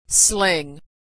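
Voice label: "sling", American English; verb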